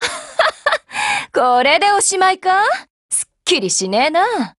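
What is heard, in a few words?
WIN Japanese, Voice; GF Alarm; Excutioner buhuo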